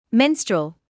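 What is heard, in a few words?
"menstrual", British English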